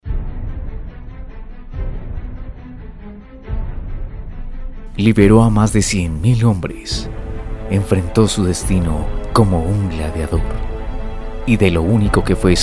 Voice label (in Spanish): Promocional